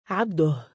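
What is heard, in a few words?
"3abduh" male, Arabic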